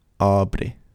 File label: "abre" incorrect, Spanish pronunciation; Phoneme a